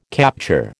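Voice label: "capture", American English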